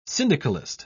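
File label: "syndicalist", British English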